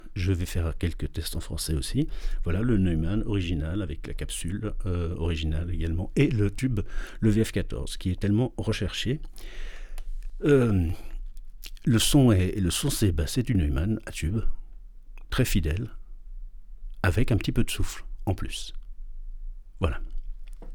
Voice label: test U47; Neumann U47